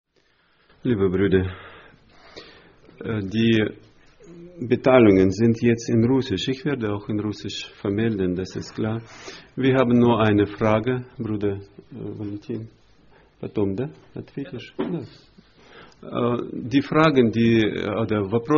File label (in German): ansage; Братское (Германия, 04-25)